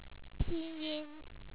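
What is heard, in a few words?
"pi1nyi1n", Chinese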